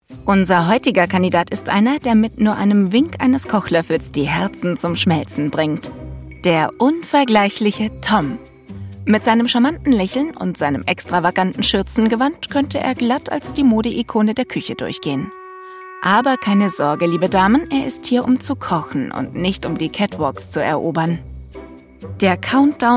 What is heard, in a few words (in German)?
Kochsendung Offvoice